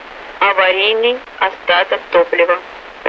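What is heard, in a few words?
emergency fuel, Russian; Mi-8MT RI65; DCS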